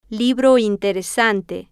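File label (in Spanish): libro interesante, Spanish, female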